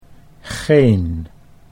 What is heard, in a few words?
"geen", Dutch